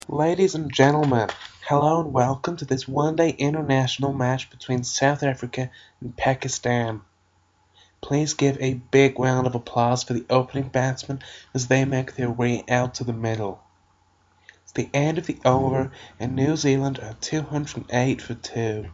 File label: unusual american